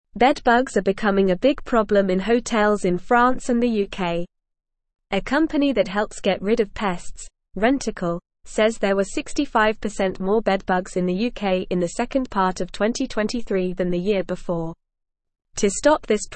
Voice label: English Lower Intermediate NORMAL Reading; Hotels use new tools to stop bedbugs; English Newsroom